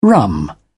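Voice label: "rum", English, British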